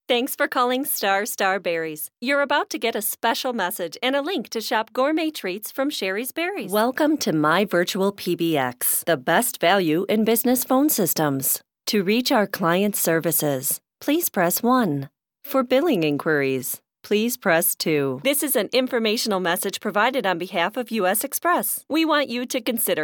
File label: IVR